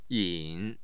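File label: "yin3", Mandarin Chinese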